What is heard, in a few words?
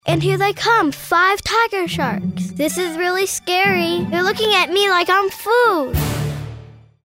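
real people youthful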